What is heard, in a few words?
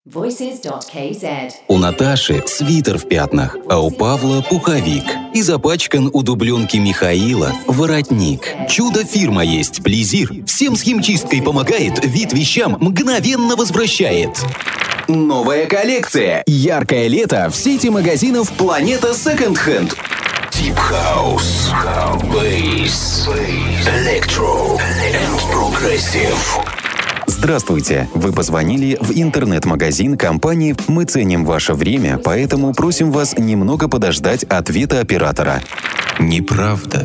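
Russian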